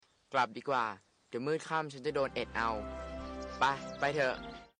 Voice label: "thuh", thai; THUH/THUHT เถอะ/เถิด is slightly politer than SI ซิ, although both are polite particles